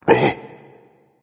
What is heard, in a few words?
surprise, voice; fenrir